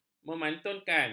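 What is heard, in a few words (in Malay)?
"memantunkan", Malay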